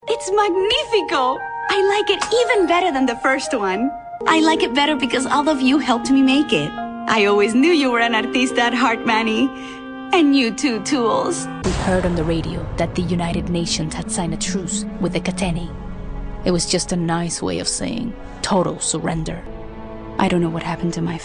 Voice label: Video Game Animation Toys VO